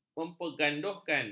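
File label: "memperganduhkan", Malay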